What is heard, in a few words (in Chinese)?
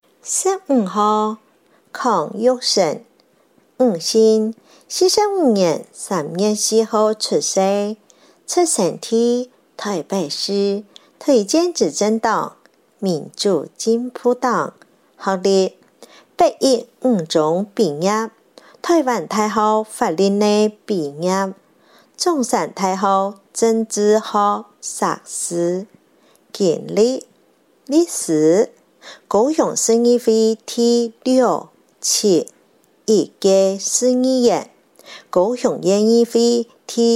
客語; 高雄市; 高雄市市長議員有聲選舉公報; 第七選區